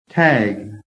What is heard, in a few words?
"TAG", american